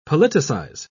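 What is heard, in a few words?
"politicise", British English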